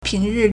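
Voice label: "ping2ri4", Mandarin Chinese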